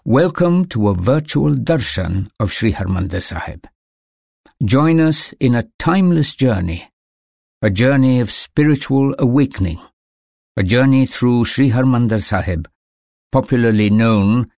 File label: vo golden temple, male voice